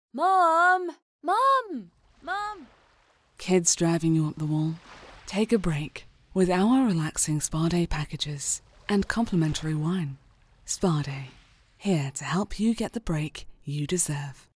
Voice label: Relaxing, warm/friendly; en-GB

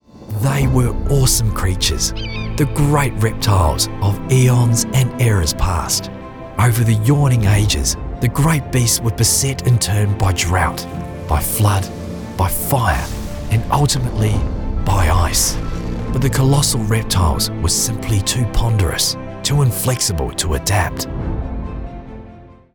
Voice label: Dinosaur Sample (with SFX), en-AU

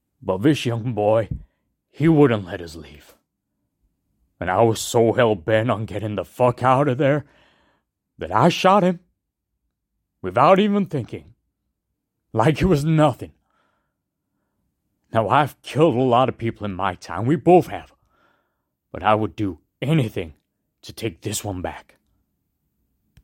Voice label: Southern state american

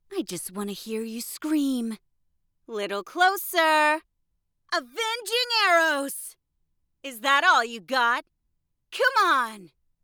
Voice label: character us

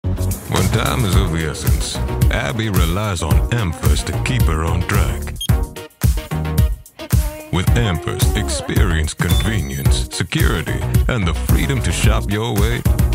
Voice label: Commercial, en-AU

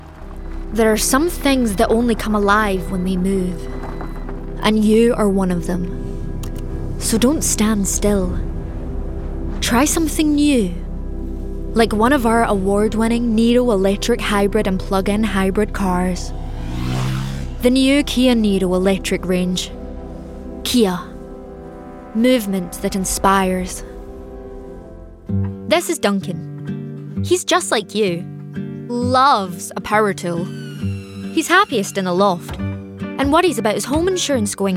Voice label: Commercial, en-GB

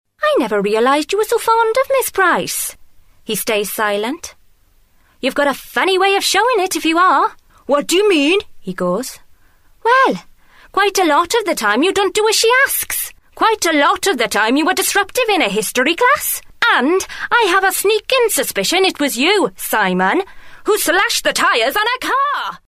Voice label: Welsh adult

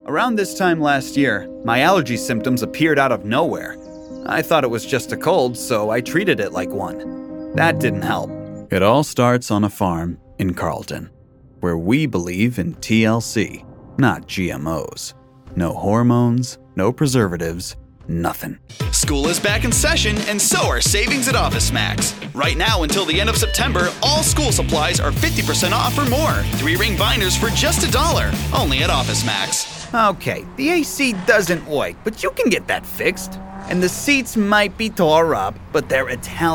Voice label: Commercial

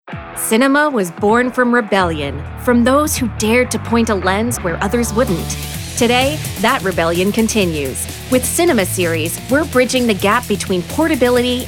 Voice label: Narration

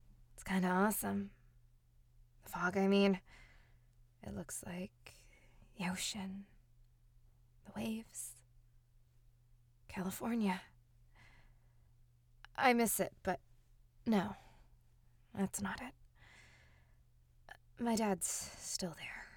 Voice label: vocal fry

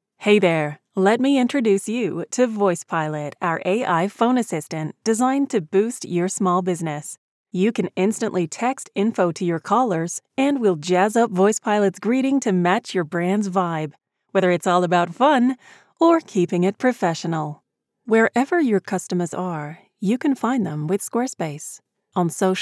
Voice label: US Accent

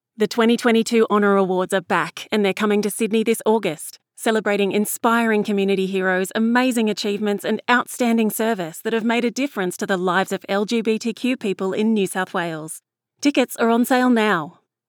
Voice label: Awards Promo; Honour Awards

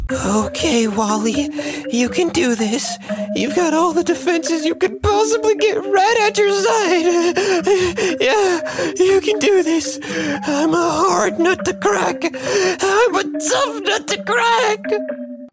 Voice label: Cartoon Zany, en-AU

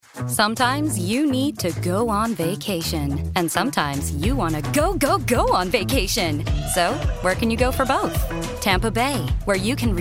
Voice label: Commercial General American

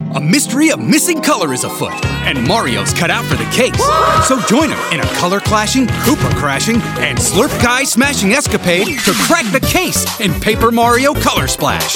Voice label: Commercial, en-GB

